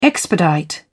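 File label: "expedite", British English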